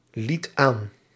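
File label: "liet aan", Dutch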